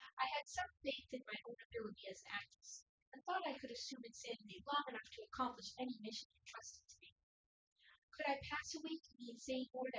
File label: female synthconv; SiSEC10; underdetermined